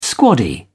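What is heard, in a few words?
"squaddie", English, British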